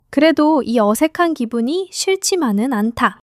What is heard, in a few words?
joy